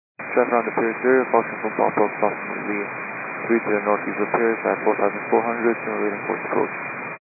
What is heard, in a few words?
122.925 MHz; scanner radio